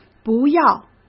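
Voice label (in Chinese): "不要", Mandarin Chinese; hsk; chinese-flashcards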